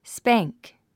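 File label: "spank", English word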